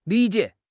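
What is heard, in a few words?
"li3 jie3", Mandarin Chinese; HSK4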